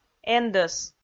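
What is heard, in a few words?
"эндӧс", Komi, female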